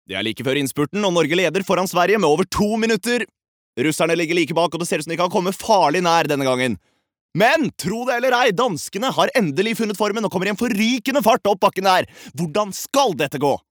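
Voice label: Norwegian dub commentator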